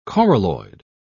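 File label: "coralloid", British English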